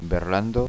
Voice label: "berlanda", Occitan

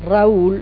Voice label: "raol", Occitan

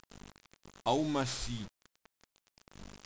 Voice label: "amaci", Occitan

